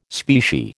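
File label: "specie", American English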